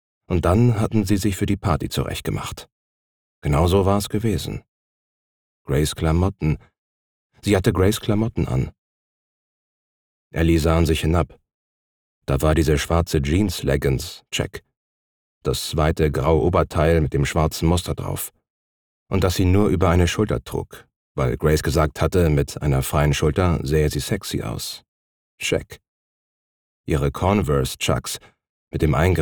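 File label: german audiobooks, Male; German Voice Actor; born in Schleswig-Holstein in 1987 and live near Hamburg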